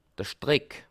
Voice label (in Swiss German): "streck", alsace